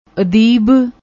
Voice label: "adeeb", Punjabi; ਅਦੀਬ